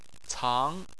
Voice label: "cang2", chinese